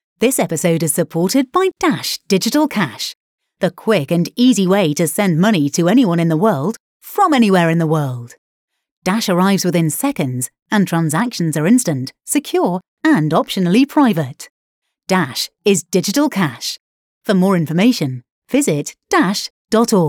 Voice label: Pre-roll Speed and Efficiency